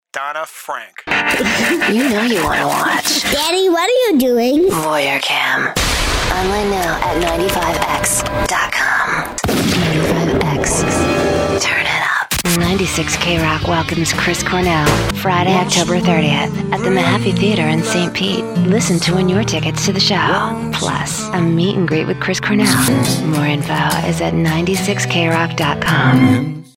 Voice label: ROCK IMAGING

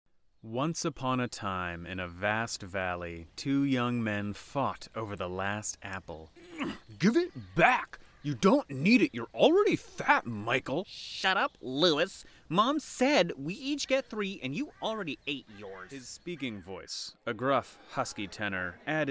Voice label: Audiobook

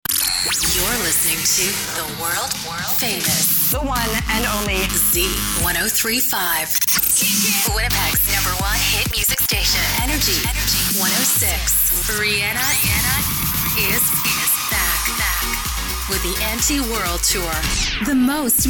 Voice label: imaging

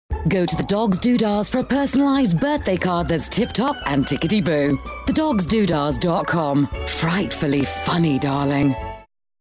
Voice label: Character

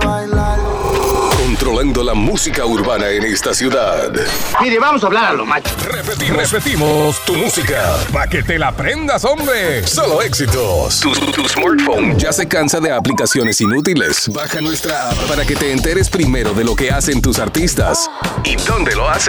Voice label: Spanish Radio Imaging

